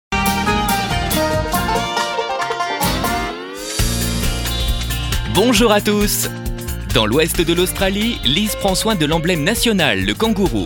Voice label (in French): souriant, voix off homme; 30 MILLIONS D'AMIS France3 sommaire